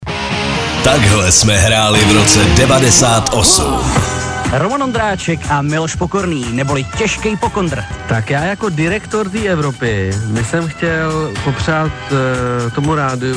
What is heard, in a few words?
liner; evropa2; narozky e2